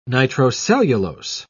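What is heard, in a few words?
"nitrocellulose", British English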